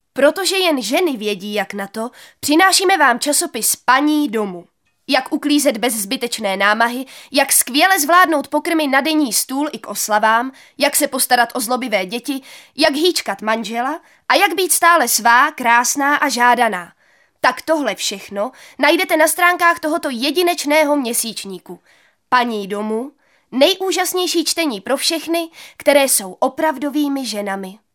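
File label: female Czech commercial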